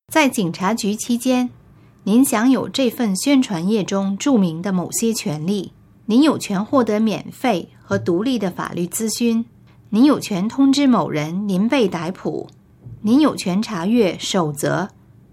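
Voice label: Chinese Mandarin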